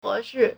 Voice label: "he2shi4", Chinese